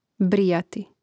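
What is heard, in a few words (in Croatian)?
"brijati", Hrvatski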